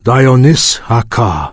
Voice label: Draenei Male Farewell

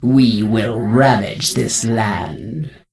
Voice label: NPC Death Knight Female Low Farewell Scourge

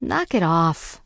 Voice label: Human Female Official NPC Pissed

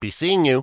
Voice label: Gnome Male Standard NPC Farewell

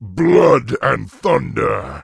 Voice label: Orc Male Guard NPC Greeting

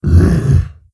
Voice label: Wound, Creature; Prophet Tharonja

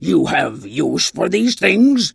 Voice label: Tuskarr Female Vendor; Magic User

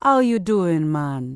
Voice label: Troll Female Laid Back NPC Greeting

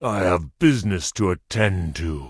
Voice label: Tauren Male Elder Pissed; NPC

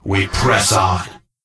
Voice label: Death Knight Male Low Greeting

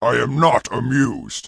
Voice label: Orc Male Standard Pissed

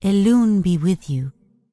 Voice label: Night Elf Female Priestess NPC Greeting

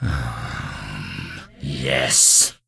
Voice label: Death Knight Male Low Greeting

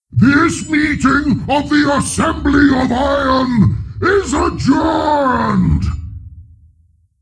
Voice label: Berserk, Creature; Steelbreaker

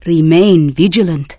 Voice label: Draenei Female Military Farewell

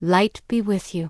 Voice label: Human Female Official NPC Greeting

